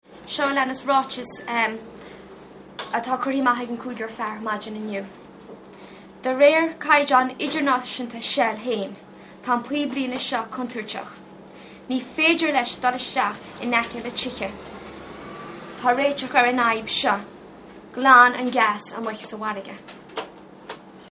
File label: statement in Irish; shell